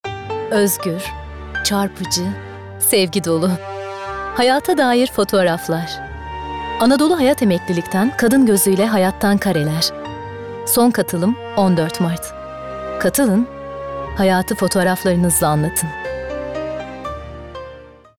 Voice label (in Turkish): Sicak Sakin